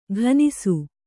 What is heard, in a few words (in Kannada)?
"ಘನಿಸು", kannada